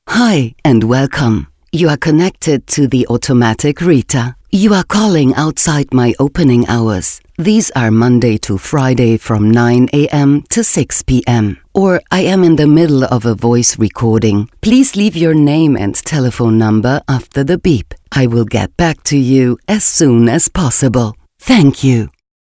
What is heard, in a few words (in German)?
Telefonansage EN